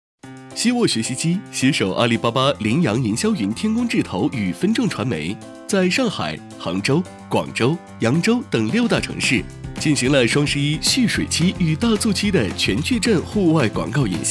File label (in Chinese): 男, 产品介绍, 年轻活泼; 中英双语 轻松活泼